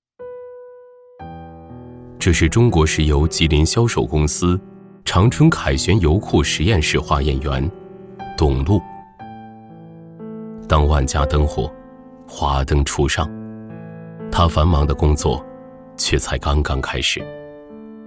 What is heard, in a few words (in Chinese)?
男 纪录片 人物专题